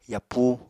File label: "yapúu", Apatani